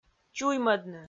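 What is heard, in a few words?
"чуймӧдны", Komi-Zyrian, female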